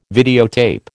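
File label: "videotape", American English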